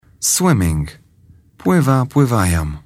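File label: "swimming", English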